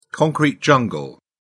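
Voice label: "concrete jungle", British English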